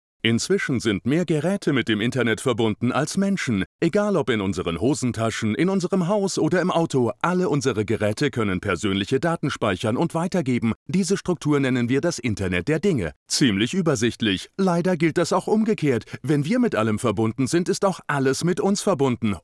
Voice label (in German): internetwerbung leicht jung; LOFT VOICES